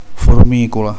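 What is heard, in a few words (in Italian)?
"formica", Italian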